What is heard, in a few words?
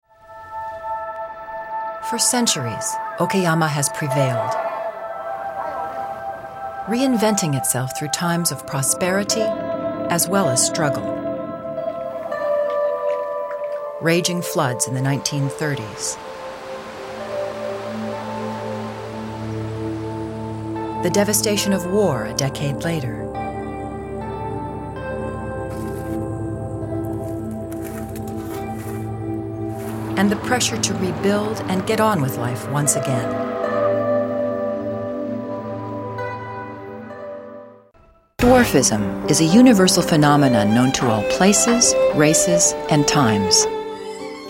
Documentary